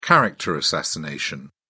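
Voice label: "character assassination", British English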